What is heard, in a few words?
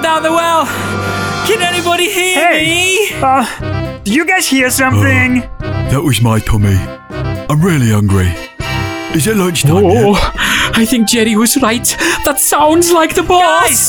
Animation Reel